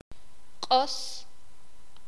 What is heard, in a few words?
"bonito", sakapulteko